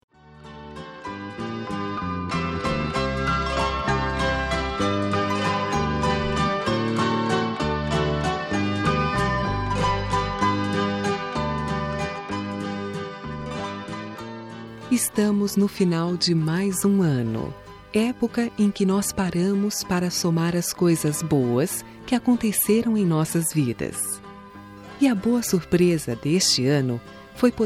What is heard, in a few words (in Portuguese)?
Feliz Natal pra Amizade, F